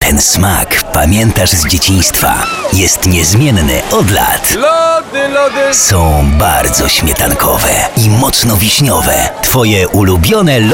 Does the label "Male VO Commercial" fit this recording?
Yes